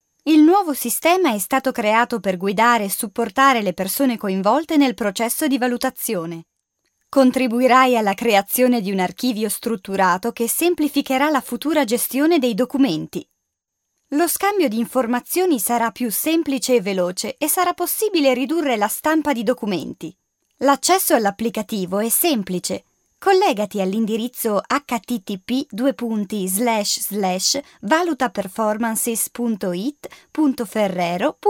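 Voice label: Italian, female, voice over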